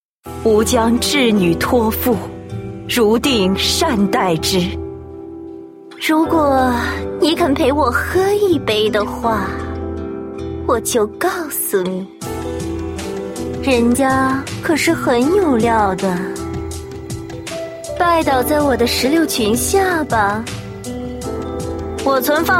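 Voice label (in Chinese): yujie nüwang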